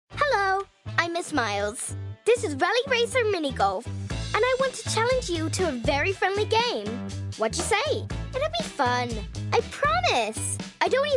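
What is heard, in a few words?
US Accent, Child Voice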